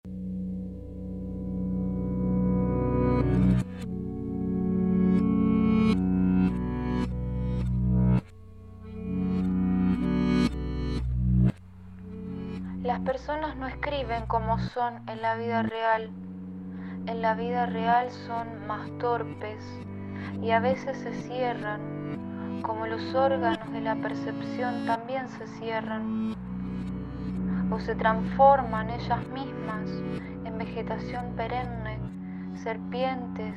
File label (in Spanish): voz filtrada